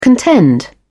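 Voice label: "contend", British English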